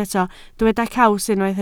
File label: "caws", Welsh